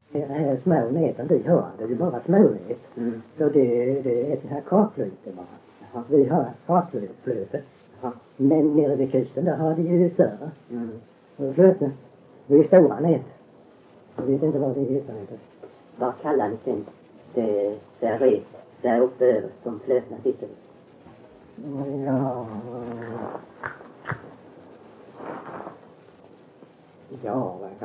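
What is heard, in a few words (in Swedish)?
Öljehult Blekinge, dialekter; Folkminnen; Svenska sagor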